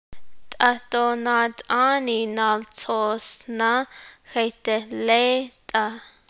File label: I wonder why the leader has not filled your paper, Navajo